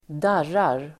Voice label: "darrar", Swedish